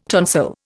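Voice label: "tonsillectomy", Indian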